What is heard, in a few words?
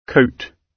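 "coat", British English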